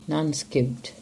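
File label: "Nancekuke" English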